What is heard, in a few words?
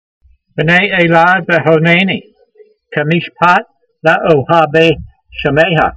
voice, Psalm 119:132, hebrew language; Transliteration: pe'nay-aylai ve'ho'naynee, ke'meeshpat le'o'ha'vay shemeyha